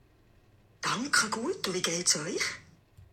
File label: "danke gueet", Bernese, female